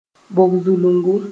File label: "bog-zulungu", Mooré; deep hole